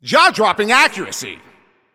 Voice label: Announcer Especially Good Shot